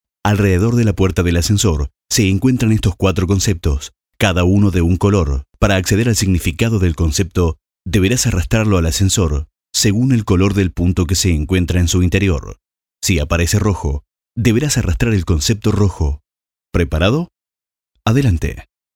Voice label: locutor uruguay uruguayan voiceover